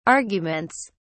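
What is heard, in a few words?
"arguments", British English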